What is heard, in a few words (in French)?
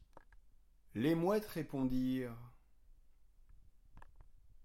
narration